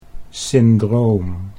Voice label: "syndroom", Dutch

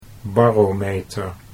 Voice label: "barometer", Dutch

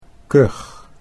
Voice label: "kuch", Dutch

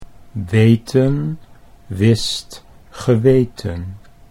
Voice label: "weten wist geweten", Dutch

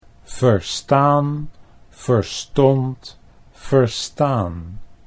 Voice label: "verstaan verstond verstaan", Dutch

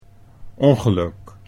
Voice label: "ongeluk", Dutch

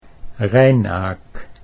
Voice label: "rijnaak", Dutch

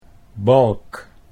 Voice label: "balk", Dutch

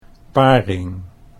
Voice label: "paring", Dutch

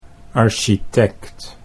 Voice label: "architect", Dutch

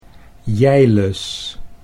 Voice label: "jeiles", Dutch

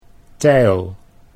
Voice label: "teil", Dutch

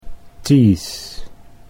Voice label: "teeth", Dutch English

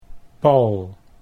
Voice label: "pal", Dutch

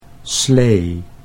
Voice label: "slee", Dutch

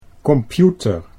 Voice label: "computer", Dutch

